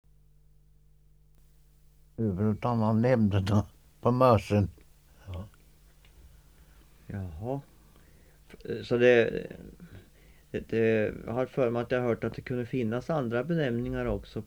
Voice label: dialekter; Folkminnen

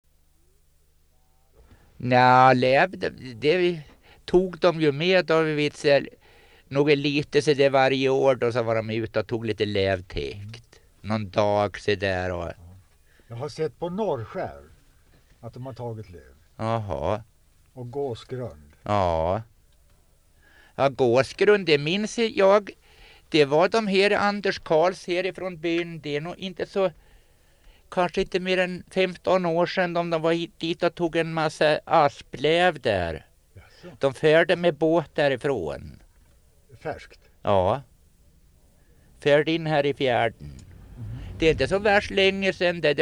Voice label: Gräsö, dialekter; Svenska sagor; Folkminnen

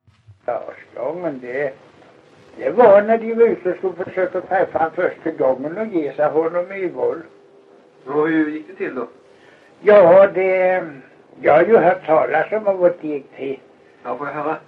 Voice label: Kristianopel BLEK, dialekter; Folkminnen

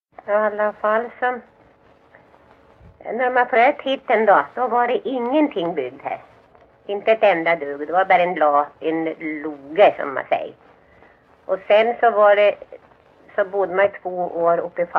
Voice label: Froson, dialekter

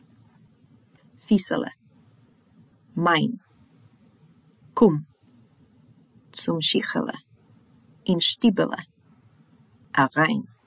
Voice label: Ordlista, Jiddish

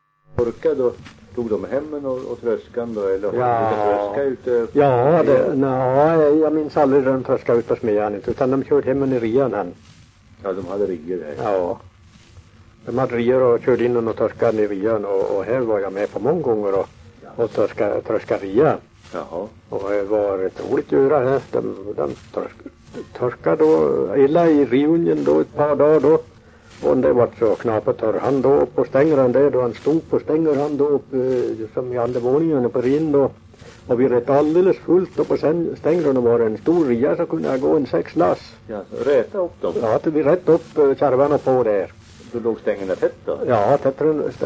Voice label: dialekter; Folkminnen

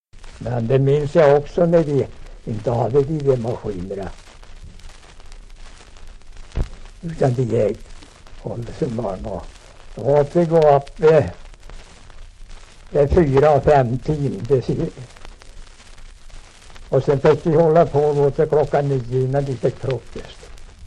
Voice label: Lerback, dialekter; NRK

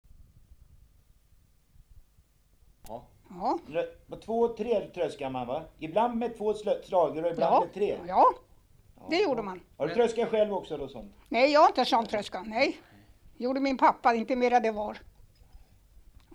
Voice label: Täby, dialekter; Svenska sagor; Folkminnen

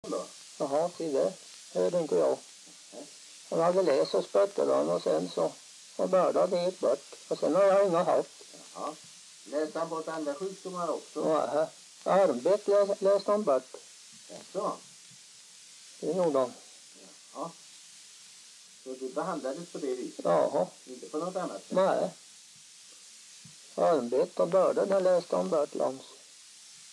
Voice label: dialekter; Folkminnen

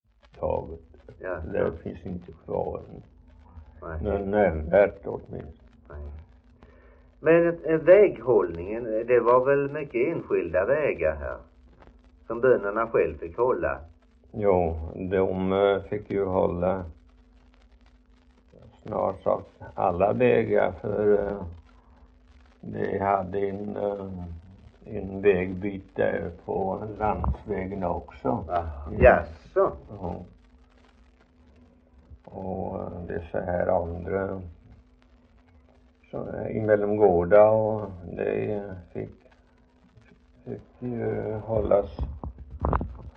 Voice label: Idala, dialekter; HALL

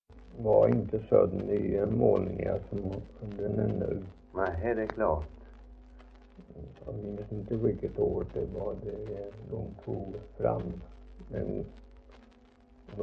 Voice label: Idala, dialekter; HALL

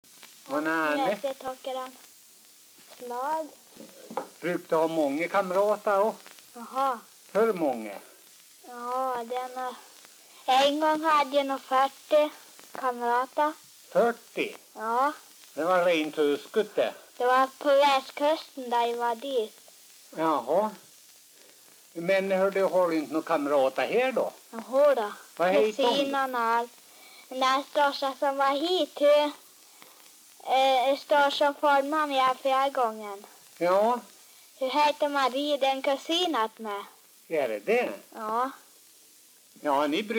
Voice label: dialekter; Folkminnen

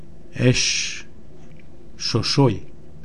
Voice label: "Sosoj", Romska

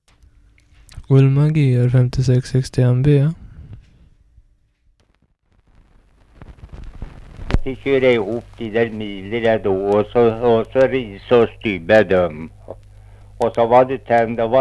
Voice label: Viker, dialekter; Svenska sagor; VSTM; Folkminnen

